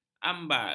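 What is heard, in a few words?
"ambal", Malay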